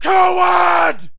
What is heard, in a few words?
man insult; Mount&Blade Warband